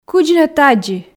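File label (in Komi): кыдз нӧ тадзи, Komi, female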